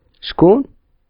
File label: "chkon", Darija